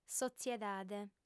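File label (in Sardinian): "sotziedade", female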